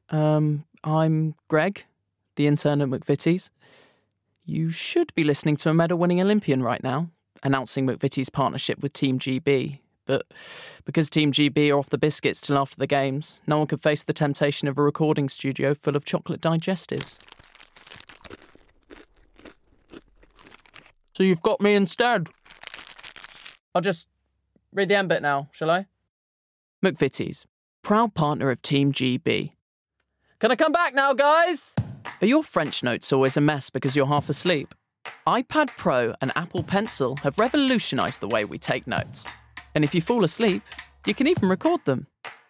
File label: Commercial Reel